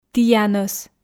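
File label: "тіянӧс", Komi, female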